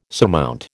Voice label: "surmount", American

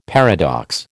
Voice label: "paradox", American

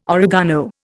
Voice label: "oregano", Indian

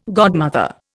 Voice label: "godmother", Indian

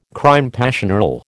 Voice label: "crime passionnel", American

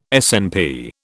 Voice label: "SNP", British

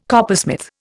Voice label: "coppersmith", Indian

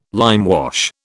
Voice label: "limewash", British

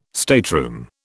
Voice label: "stateroom", British

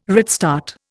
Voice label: "redstart", Indian

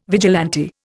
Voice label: "vigilante", Indian

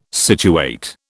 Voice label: "situate", British

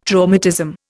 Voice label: "traumatism", Indian

